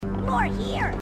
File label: more here, grunts